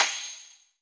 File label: "hai" accent; HeavenStudioPlus; manzai